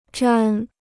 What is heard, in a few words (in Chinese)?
"沾", Chinese